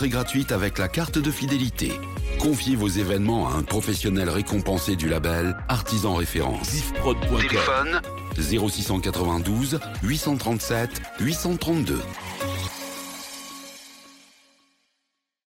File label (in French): Spot Salle de reception; Le Sublime